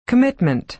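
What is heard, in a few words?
"commitment", American English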